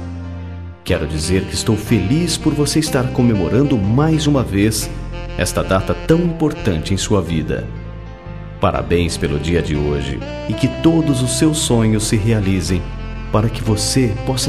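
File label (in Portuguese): Aniversário de Afilhados, male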